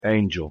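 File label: "angel", English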